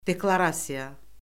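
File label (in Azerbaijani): "deklarasiya", Azerbaijani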